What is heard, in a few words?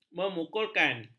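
"memukulkan", Malay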